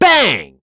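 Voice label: Vox Skill, voices heroes English; Mitra; kings raid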